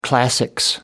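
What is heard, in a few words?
"classics", English, uk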